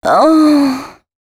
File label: female c#133, adlips